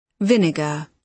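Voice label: "vinegar", British English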